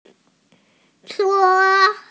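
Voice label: "che1", Chinese, female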